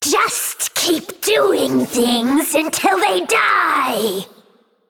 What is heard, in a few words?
Female Naga